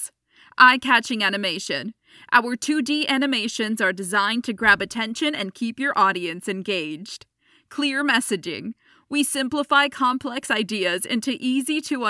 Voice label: english american explainer videos; professional bilingual (English and Spanish) voice actor